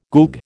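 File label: "goog", American English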